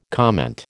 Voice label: "comment", American